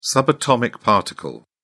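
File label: "subatomic particle", British English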